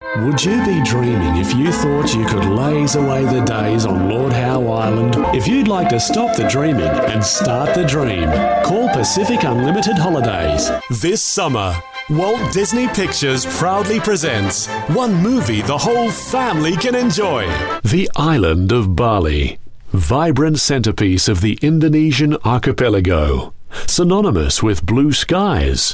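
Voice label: English Australian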